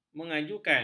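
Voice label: "mengajukan", Malay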